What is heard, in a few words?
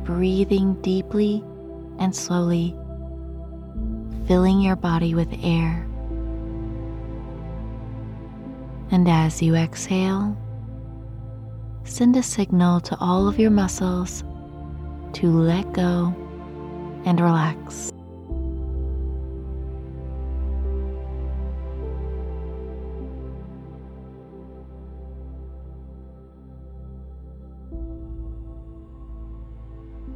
Work Motivation Meditation